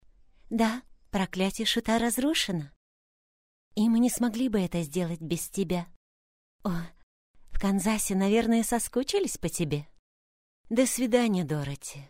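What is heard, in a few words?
russian female character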